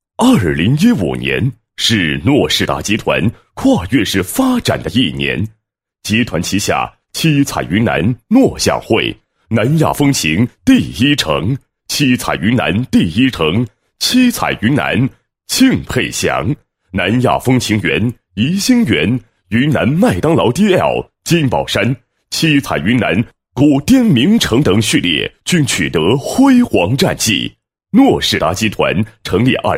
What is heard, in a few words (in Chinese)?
男 工作总结, 配音; 诺仕达2015大事件总结